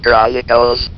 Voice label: "CHRONICL", votrax; VIDEO GAMES